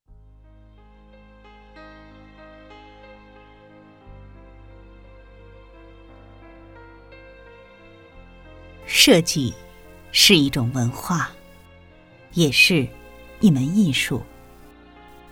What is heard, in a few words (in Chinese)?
大气宣传片